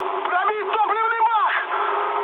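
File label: tank damaged, mood high, Russian; War Thunder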